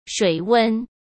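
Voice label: "水温", Mandarin Chinese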